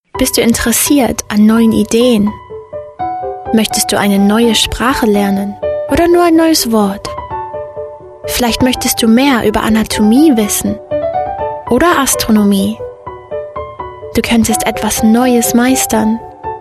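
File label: German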